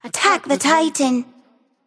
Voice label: vox attack m; smite; scylla

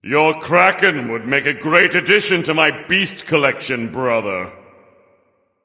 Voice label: taunt directed; poseidon; smite; zeus

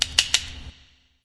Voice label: vox click; smite; camazotz

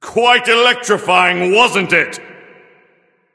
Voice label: taunt directed; nuwa; smite; zeus

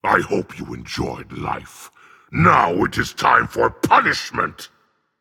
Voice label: Taunt dragon; fafnir

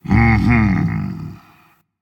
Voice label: Agree Dragon; fafnir

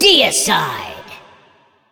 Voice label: narrator callouts alt deicide; caster battlepass1

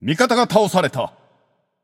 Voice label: notify slain ally, japanese; caster

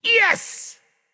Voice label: emote yes; smite; fafnir

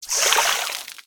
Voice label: defeat base tears short; smite; vamana

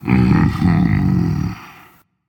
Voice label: Agree Dragon; fafnir

